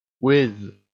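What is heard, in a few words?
"whiz", English; mejdan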